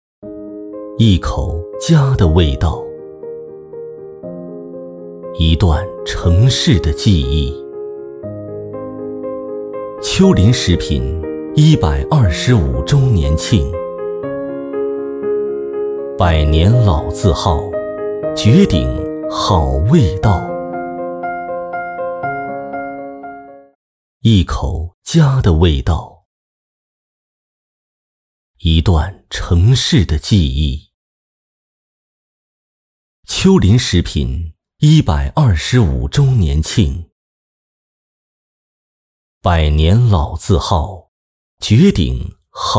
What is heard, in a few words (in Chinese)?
男, 广告 食品; 秋林食品